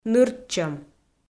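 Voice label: "нырччӧм", Komi, female; audio dict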